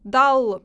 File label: dark l, russian